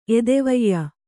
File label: "ಎದೆವಯ್ಯ", Kannada